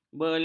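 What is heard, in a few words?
"belang", Malay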